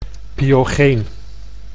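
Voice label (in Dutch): "pyogeen", Dutch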